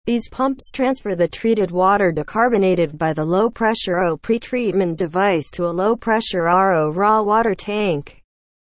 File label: english, seawater desalination; watercompany